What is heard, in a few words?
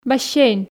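"бассейн", Komi, female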